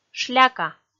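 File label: "шляка", Komi, female; kpv audio dict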